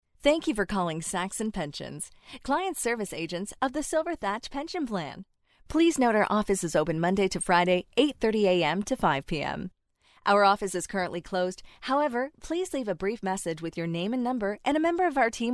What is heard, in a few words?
english american ivr